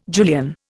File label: "Julian", Indian